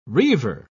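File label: "reiver", British English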